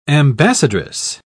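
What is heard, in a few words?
"ambassadress", English, British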